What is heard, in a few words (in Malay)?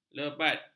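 "lebat", Malay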